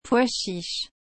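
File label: "pois chiche", French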